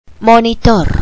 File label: "monitor", Spanish